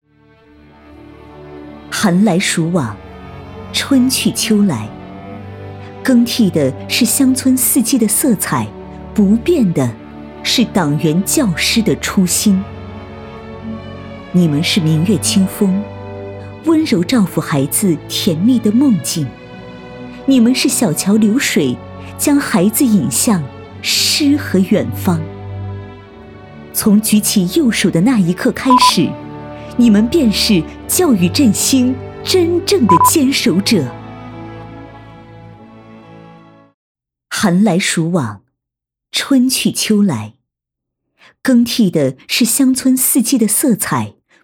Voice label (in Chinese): 颁奖 有感情颁奖